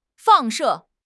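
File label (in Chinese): "放射", Mandarin Chinese; HSK6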